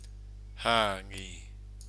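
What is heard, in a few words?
"hangi", Maori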